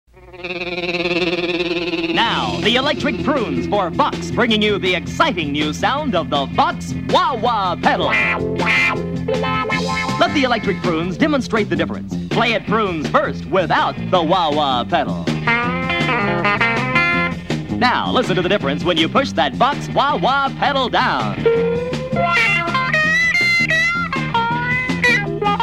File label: vox wah wah ad